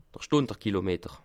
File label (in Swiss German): "stunde", Alsace